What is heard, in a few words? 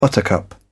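"buttercup", English, British English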